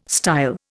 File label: "style", Indian